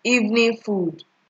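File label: "evening food", Nigerian Pidgin, female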